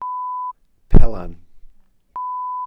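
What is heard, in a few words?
"pelan", Welsh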